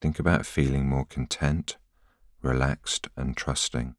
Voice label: voiceover